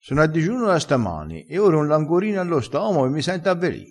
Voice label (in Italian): "avveli", crespinese